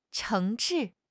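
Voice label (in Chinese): "诚挚", Chinese; HSK6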